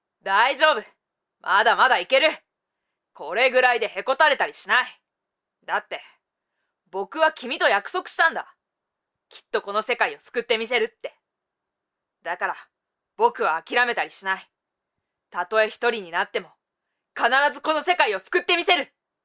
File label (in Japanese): セリフ少年高